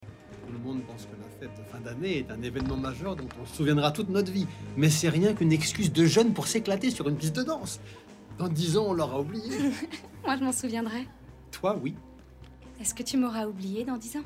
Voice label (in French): Doublage adolescente